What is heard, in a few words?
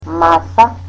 "table", Macedonian